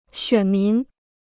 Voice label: "选民", Chinese

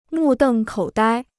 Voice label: "目瞪口呆", Chinese

